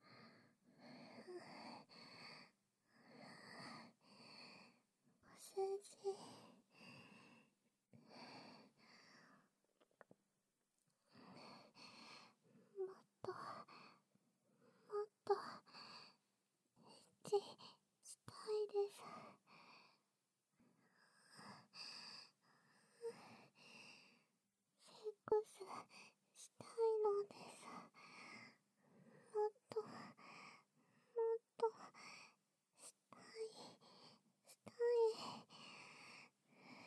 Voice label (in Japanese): 効果音なし, ASMR; 2回目